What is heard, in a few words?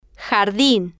"jardin", Spanish